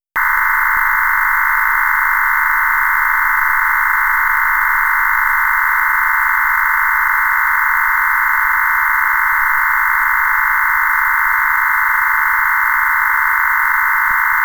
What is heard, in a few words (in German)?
CQ Ruf FreeDV Modulation; SN-Vergleich